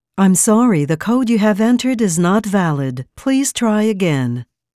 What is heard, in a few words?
invalid code, ivr